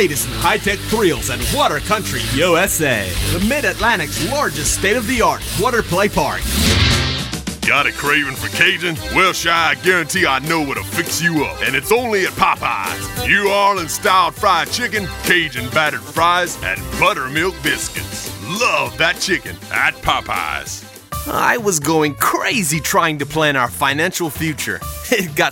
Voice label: Voice Actor Commercial